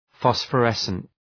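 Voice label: "phosphorescent", English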